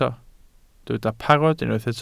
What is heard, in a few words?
"parod", Welsh